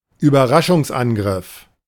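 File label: "Überraschungsangriff", German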